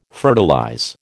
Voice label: "fertilize", American